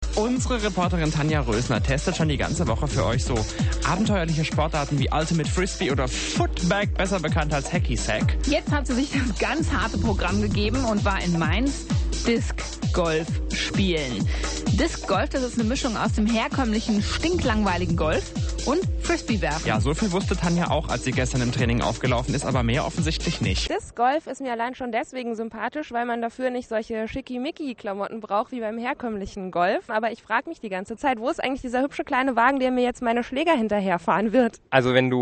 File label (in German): reportage; Disc-Golf; hrXXL